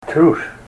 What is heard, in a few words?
coward, Ninilchik Russian